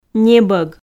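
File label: "небог", Komi, female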